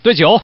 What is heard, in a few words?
Man